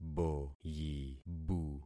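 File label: "boyibu", concatenated syllables; Affixing experiment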